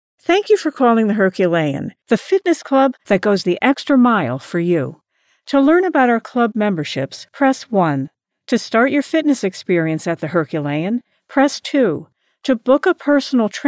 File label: Telephone